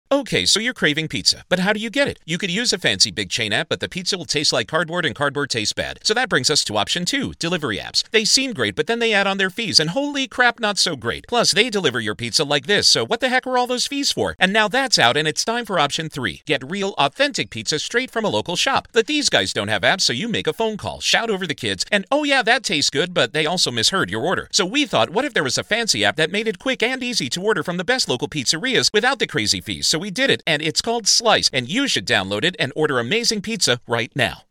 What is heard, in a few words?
Commercial; Pizza